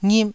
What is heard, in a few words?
"ngip4", taiwanese syllables